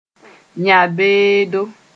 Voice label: "yã-beedo", moore

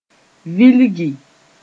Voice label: "vilgi", moore

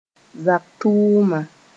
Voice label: "zak tʋʋma", moore

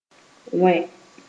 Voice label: "wẽ", moore

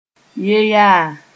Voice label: "ye yaa", moore

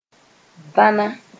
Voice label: "vãna", moore

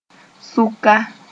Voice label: "sʋka", Moore; thorax

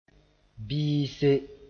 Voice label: "bɩɩse", moore

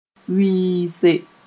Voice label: "wɩɩse", moore

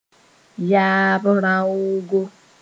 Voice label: "yaab-raoogo", moore; grandfather

